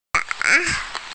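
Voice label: "da3", Mandarin Chinese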